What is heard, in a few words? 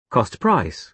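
"cost price", British English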